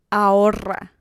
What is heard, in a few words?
"ahorra", Spanish